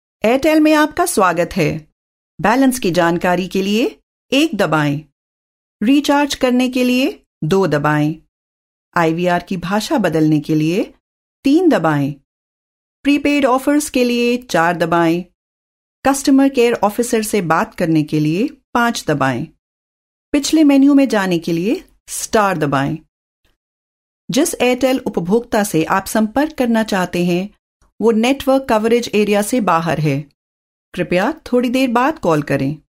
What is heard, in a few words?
audition hindi; female voice; airtel